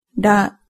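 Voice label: "ra", Japanese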